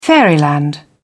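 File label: "fairyland", British English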